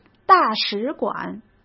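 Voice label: "大使馆", Mandarin Chinese; chinese flashcards; hsk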